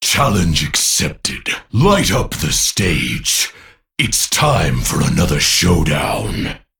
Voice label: Male Crystal Golem Mission Start